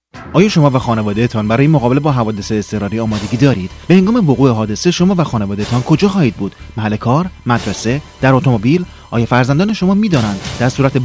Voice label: Farsi Radio AD